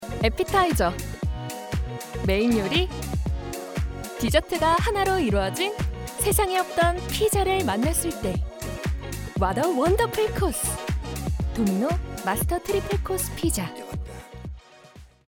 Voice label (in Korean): 광고 경쾌한 발랄한 피자 광고